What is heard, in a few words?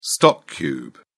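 "stock cube", English, British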